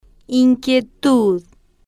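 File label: "in-quie-tud", Spanish, female, slow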